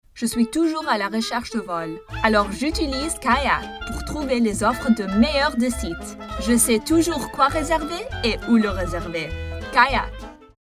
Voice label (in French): French Language